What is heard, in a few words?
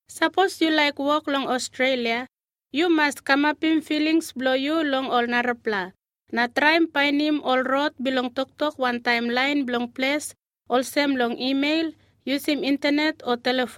Tok Pisin